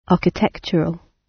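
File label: "architectural", British; Study, education, research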